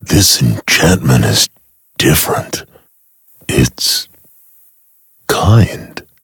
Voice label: touch, en; cookie run